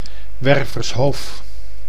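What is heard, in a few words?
"Wervershoof", Dutch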